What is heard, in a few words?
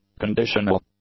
"conditional", English; adjectives